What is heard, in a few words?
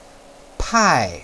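"pai", Mandarin Chinese, fourth tone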